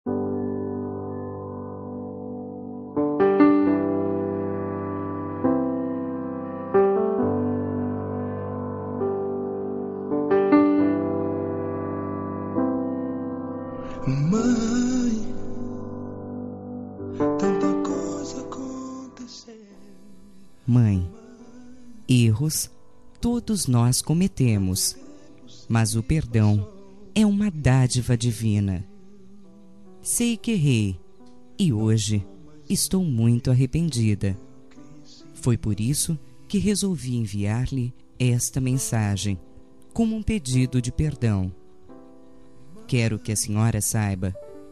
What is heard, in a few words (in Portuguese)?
dia das maes perdao para mae voz feminina